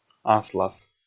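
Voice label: "аслас", Komi, male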